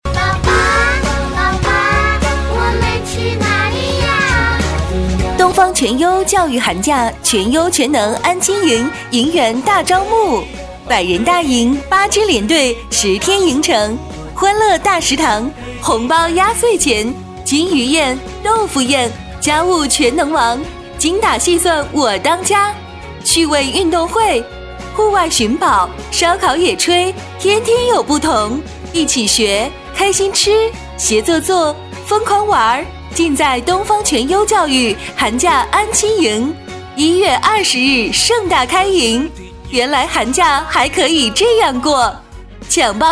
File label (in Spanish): Grabación niños locutora chino